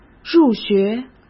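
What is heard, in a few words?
"入学", Mandarin Chinese; chinese-flashcards; hsk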